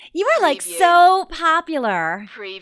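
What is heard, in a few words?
Woman Says You're So Popular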